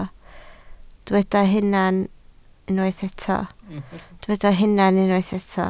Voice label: "hunan", Welsh